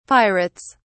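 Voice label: "pirates", British English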